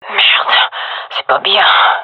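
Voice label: scared, female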